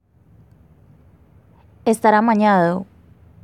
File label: Medellin Slang Expression